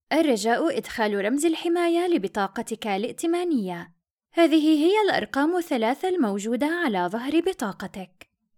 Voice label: Arabic IVR

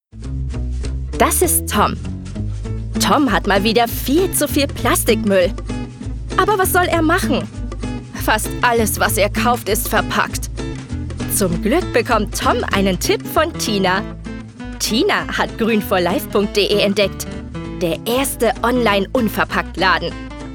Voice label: German commercial

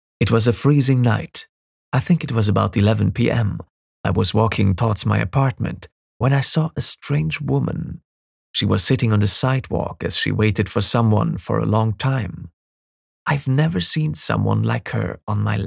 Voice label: english audiobooks

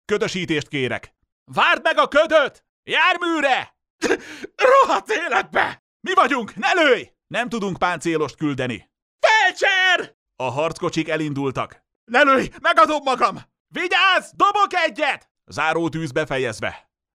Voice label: hungarian video games

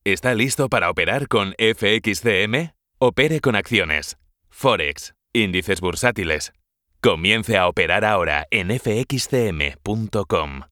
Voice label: spanish others

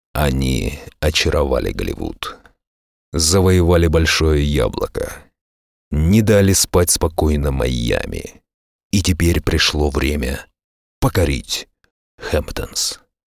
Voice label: russian movie trailers; locutor nativo de Rusia

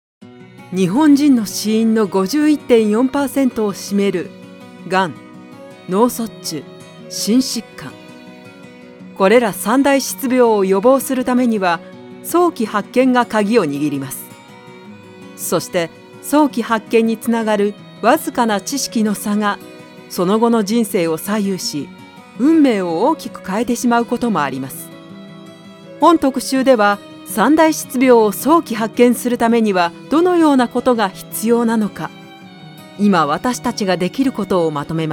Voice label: japanese narration

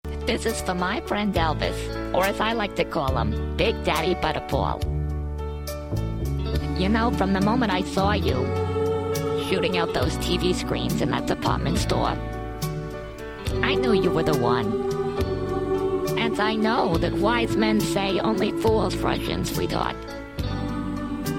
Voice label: english canadian animation; voice actors

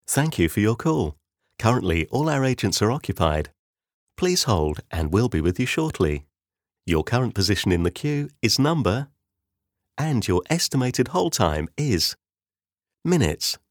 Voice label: english british ivr

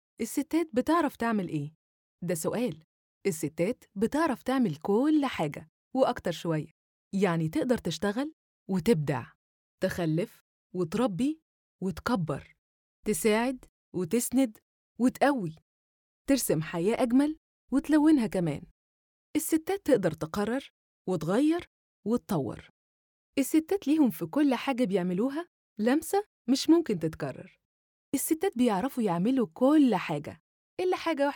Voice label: arabic promos

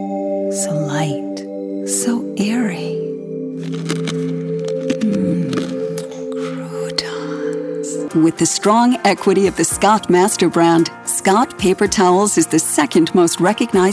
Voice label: english american narration

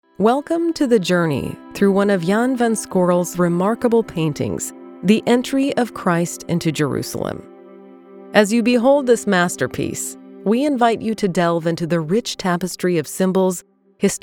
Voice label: english canadian audio guides

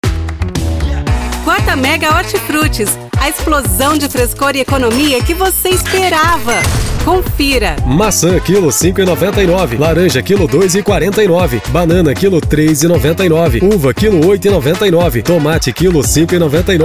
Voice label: portuguese brazilian radio imaging

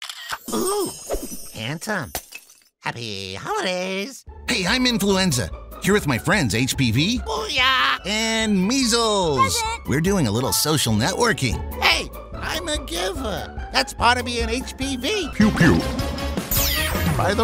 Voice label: english american animation

